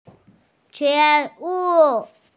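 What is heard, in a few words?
"jue2wu4", Mandarin Chinese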